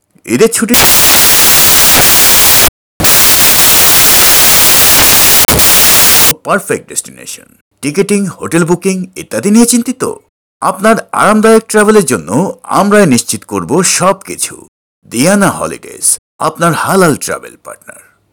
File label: voiceover